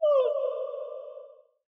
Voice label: headset spooky voice; Barotraumatic; HumanImmortalnovice